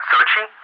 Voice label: "Sochi", ENG, ATC; Callsign; DCS